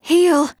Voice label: VO en